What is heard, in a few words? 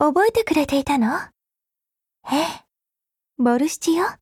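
Japanese; Groza; Dreamscape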